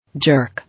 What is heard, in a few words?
"Jerk", English